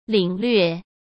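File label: "领略", Mandarin Chinese; chinese flashcards; hsk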